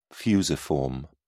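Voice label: "fusiform", British English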